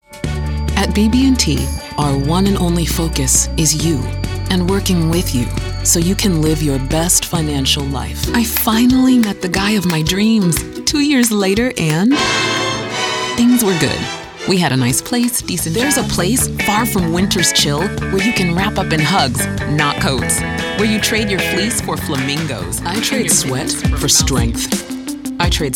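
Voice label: commercial, Voiceover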